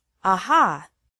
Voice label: Aha Sound Effect Woman; idea